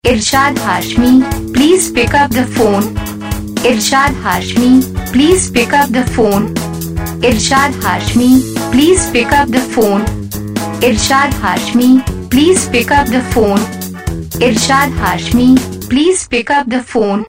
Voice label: pick up the phone saying, Ringtones; Your Name Ringtones A To Z Names

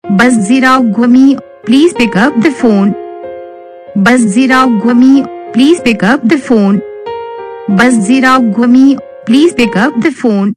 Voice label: pick up phone saying, Ringtones

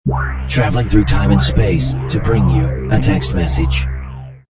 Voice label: Space Text Message, voice; MessageTones Voice

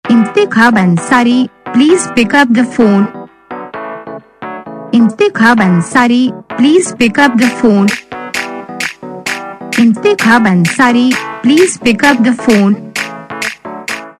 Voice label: pick up phone saying, Ringtones

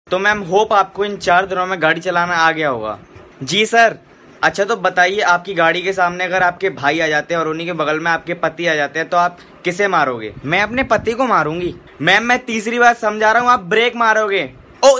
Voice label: Funny Dialogue Ringtone

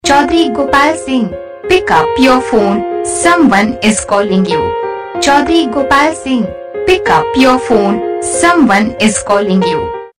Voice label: pick up your phone someone is calling saying

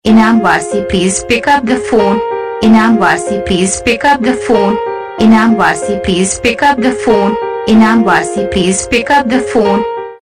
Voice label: pick up the phone saying, Ringtones; Your Name Ringtones A To Z Names

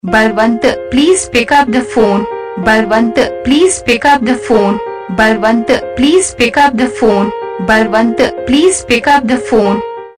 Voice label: pick up the phone saying, Ringtones

